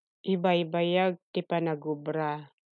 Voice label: Ilocano